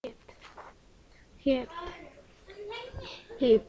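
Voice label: "hip", spoken word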